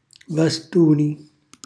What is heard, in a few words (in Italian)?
"vastuni", calabrese; bastone; cucinacalabrese; glossario